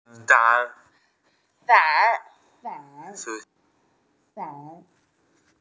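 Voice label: "umbrella", Mandarin; yu3san3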